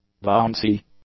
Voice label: "bouncy", English; adjectives

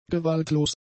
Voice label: "gewaltlos", German; adjectives

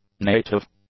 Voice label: "native", english; adjectives

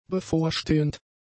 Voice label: "bevorstehend", German; adjectives

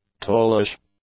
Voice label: "tallish", English; adjectives

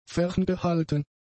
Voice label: "ferngehalten", german; adjectives

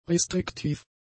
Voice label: "restriktiv", German; adjectives

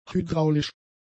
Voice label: "hydraulisch", German; adjectives

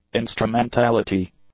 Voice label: "instrumentality", English; nouns

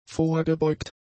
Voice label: "vorgebeugt", German; adjectives

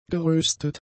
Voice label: "geröstet", German; adjectives

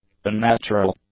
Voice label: "unnatural", English; adjectives

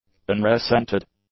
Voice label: "unresented", english; adjectives

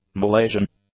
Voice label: "Malaysian", english; adjectives

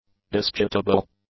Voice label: "disputable", English; adjectives

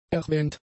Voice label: "erwähnt", German; adjectives

